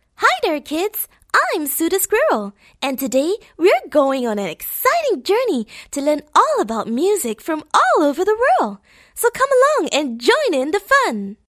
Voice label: Asian English F; MOE